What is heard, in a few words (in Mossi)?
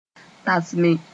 "tasme", moore